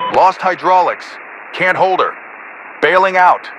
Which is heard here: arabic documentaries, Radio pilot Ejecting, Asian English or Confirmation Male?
Radio pilot Ejecting